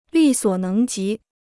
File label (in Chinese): "力所能及", Chinese